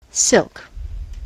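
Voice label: "silk", US English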